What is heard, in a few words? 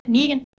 "nigon", Anglo Saxon